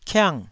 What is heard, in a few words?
"kiang4", taiwanese syllable